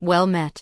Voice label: Human Female Official NPC Greeting